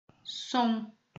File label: "som", portuguese